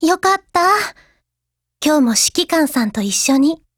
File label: LOADING JP